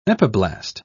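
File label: "epiblast", English, British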